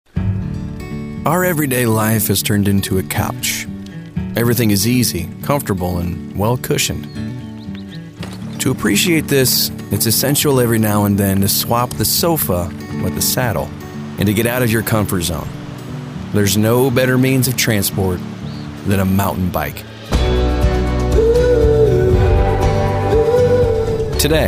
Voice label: First Person Documentary